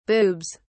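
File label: "boobs", British English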